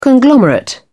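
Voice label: "conglomerate", British English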